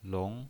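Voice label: "long", pinyin, Mandarin Chinese, second tone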